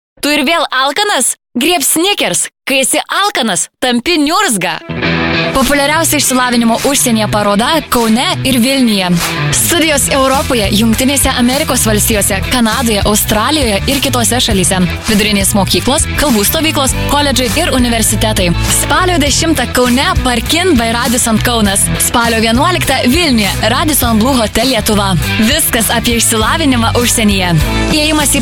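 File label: Lithuanian female voice; lietuviu; moteris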